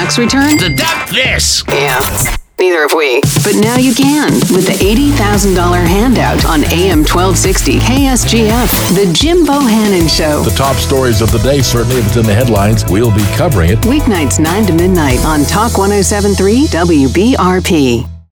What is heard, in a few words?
News Imaging